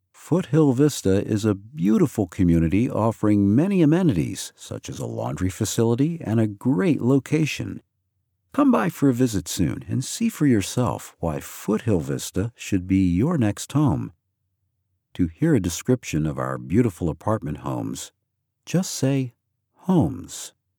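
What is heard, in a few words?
American English, male, voice over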